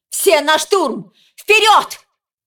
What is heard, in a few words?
cinematic voice, ru; lineage2